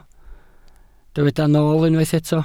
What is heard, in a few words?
"nool", Welsh